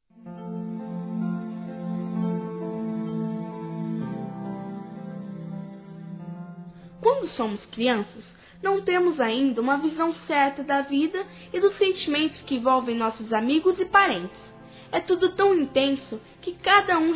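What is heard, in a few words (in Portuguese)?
Madrinha Padrinho Feminino Infantil; Aniversários; Aniv Familiar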